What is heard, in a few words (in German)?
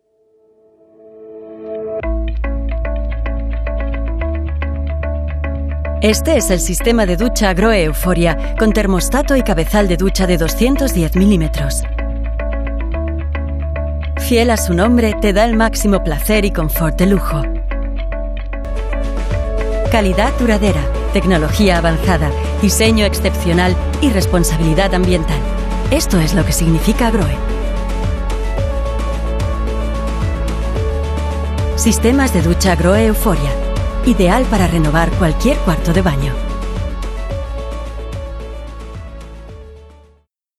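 Spanish, Neutral; Fremdtake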